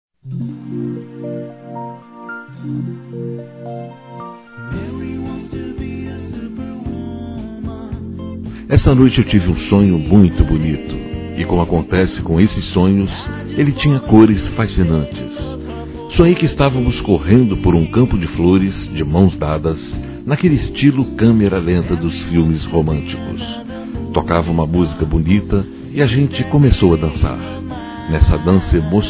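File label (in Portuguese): Namoro Masculino; Aniversários; ANIVERSARIO romantico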